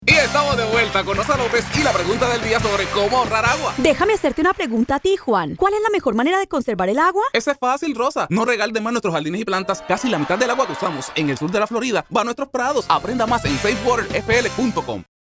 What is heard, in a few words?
Water Conservation - Spanish